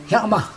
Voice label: "hiatma", Chug, head lice